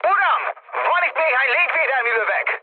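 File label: Hungarian damaged aa mood med, speech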